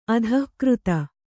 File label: "ಅಧಿಕೃತ", Kannada